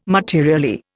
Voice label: "materially", Indian